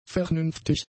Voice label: "vernünftig", German; adjectives